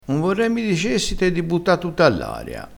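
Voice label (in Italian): "dicessite", dialettale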